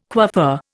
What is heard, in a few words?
"coiffeur", Indian